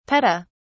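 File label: "peta", British English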